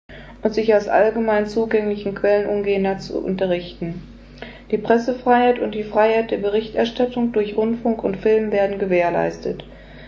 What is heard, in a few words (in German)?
Schriftsprache